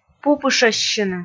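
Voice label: "пупышӧссьыны", Komi, female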